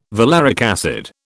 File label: "valeric acid", British